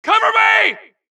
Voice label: supress, dialogue Allies; mohaa; Mission 2